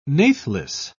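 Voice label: "nathless", British English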